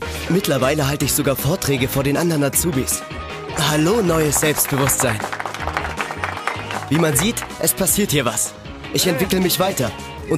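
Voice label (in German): Imagefilm Azubi, Sprecher, Männer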